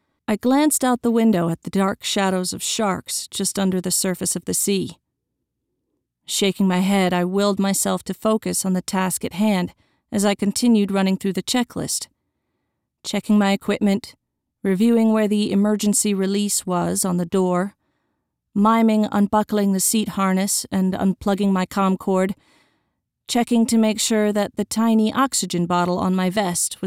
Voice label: Military Memoir Female Protagonist; Air Force